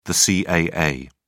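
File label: "caa", British English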